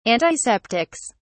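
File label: "antiseptics", British English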